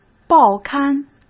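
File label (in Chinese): "报刊", Mandarin Chinese; hsk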